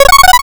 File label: robo voice